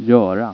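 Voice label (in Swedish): "göra", Swedish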